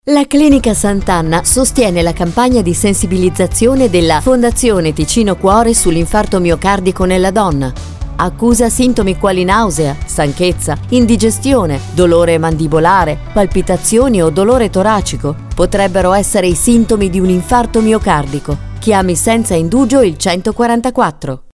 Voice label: spot; santanna campagna donna